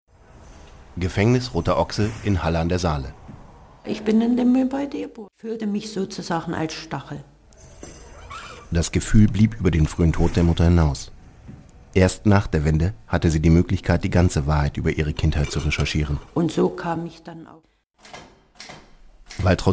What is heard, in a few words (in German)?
Dokumentation; LOFT VOICES